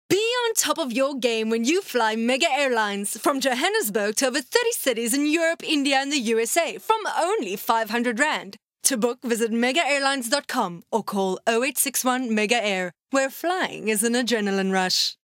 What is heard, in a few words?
English Hardsell